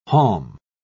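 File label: "haulm", English, uk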